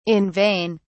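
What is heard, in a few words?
"in vain", British English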